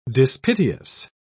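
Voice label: "dispiteous", English, British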